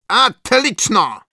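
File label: Medic cheers, Russian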